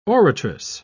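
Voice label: "oratress", English, British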